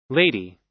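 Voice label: "lady", US English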